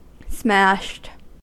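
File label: "smashed", American English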